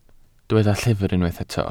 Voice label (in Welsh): "llyfr" Welsh